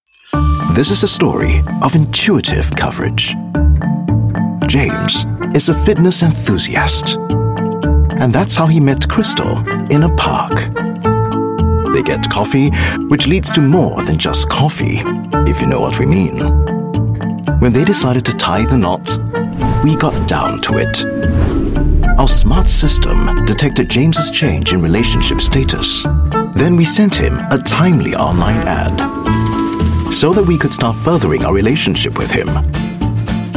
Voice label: Asian English corporate; Manulife